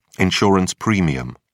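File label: "insurance premium", British English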